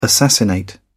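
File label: "assassinate", British English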